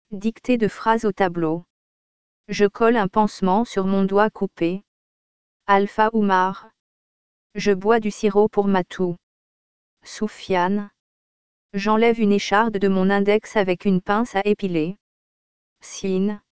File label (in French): dictée de phrases au tableau